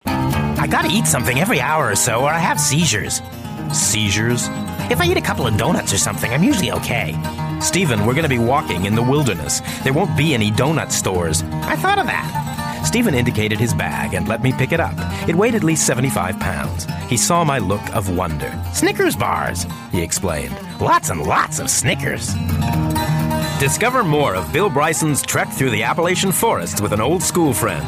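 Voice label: American English Audiobook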